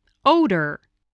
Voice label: "odor", voice, spelling